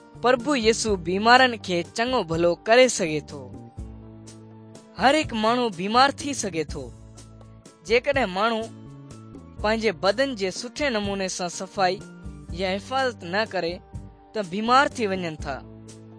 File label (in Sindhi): good news sindhi dukslinu, drama